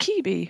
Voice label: "kibi" longer